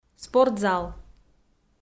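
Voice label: "спортзал", Komi, female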